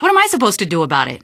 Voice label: answer, female; Garry's Mod; hl2